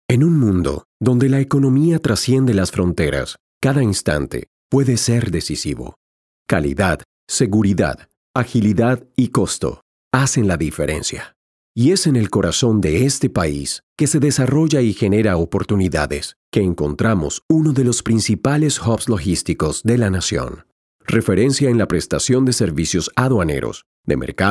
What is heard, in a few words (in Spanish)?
Puerto Seco, SP